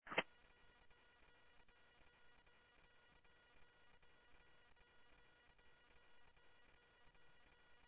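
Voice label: Sheriff Lake Patrol, scanner audio trunk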